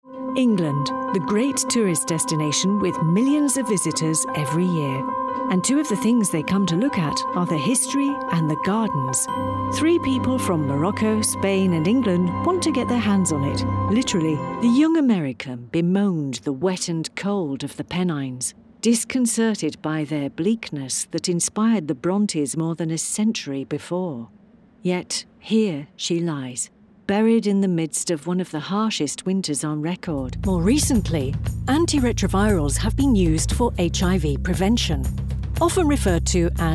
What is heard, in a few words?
Narration UK